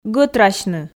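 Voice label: "гӧтрасьны", Komi, female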